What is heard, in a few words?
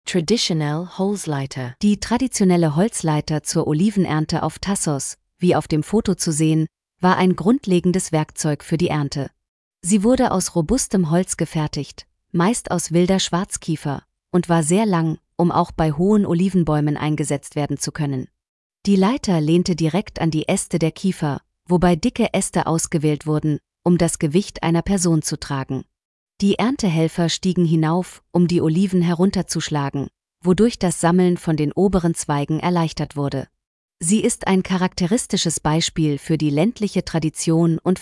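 german, Audio guided tour; Σκάλα; Sie ist ein typisches Beispiel für das landwirtschaftliche Erbe und das handwerkliche Geschick der Insel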